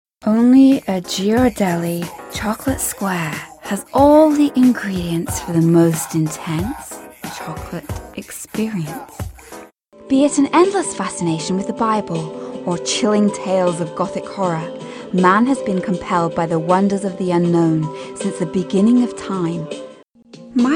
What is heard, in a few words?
Commercial Reel